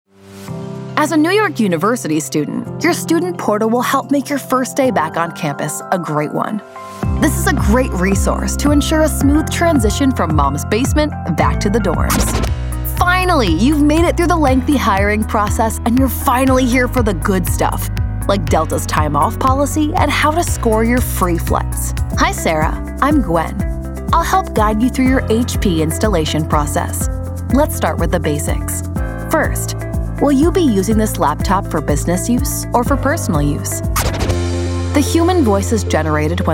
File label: 20s 30s Female E Learning